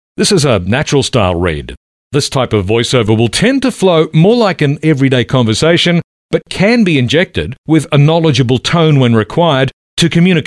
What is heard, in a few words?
on hold messages male